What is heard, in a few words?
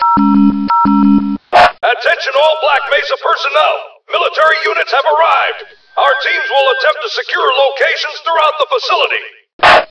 allied announce; fgrunt